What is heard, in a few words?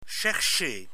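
"chercher", French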